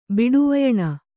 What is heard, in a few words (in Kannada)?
"ಬಿಡುವಯಣ", Kannada